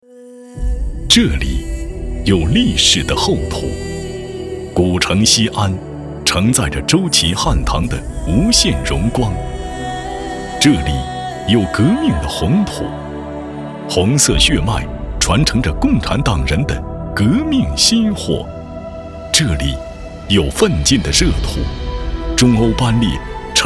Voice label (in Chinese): 男 政府专题类; 西安铁路